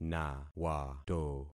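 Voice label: "nawato", concatenated syllables; Affixing experiment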